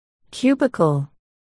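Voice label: "cubicle", spoken word, articulation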